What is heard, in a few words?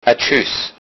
goodbye, lowsaxon